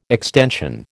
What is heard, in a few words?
"extension", American